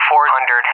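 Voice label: "400", English speech; AWACS Numbers; DCS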